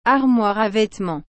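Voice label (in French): "armoire a vetements", French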